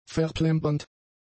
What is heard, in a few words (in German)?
"verplembernd", german; adjectives